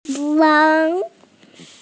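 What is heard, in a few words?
"fang2", Mandarin Chinese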